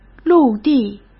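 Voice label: "陆地", Mandarin Chinese; hsk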